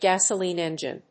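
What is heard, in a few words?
"gasoline engine", English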